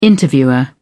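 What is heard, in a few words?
"interviewer", British English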